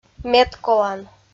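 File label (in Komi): "медколан", Komi-Zyrian, female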